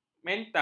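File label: "menta", Malay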